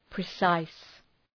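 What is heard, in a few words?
"precise", English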